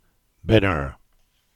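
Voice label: "Binnerton" Cornish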